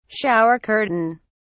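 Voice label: "shower curtain", British English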